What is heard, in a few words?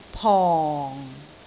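"pong4", Cantonese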